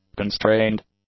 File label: "constrained", English; adjectives